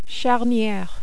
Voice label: "charniere", French

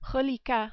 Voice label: "reliquat", French

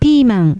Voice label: "piiman", Japanese

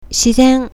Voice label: "shizen", Japanese

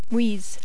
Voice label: "mouise", French

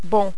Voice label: "bon", French

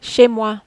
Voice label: "chez moi", French

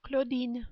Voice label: "Claudine", French

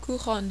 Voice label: "couronne", French

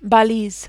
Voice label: "balise", French

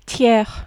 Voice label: "tiers", French

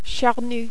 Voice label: "charnu", French

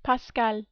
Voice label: "Pascal", French

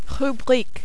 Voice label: "rubrique", French

